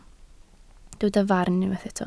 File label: "farn", Welsh